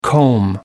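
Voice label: "comb", English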